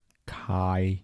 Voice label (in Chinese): "契", 台城, 男